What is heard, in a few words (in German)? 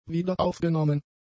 "wiederaufgenommen", German; adjectives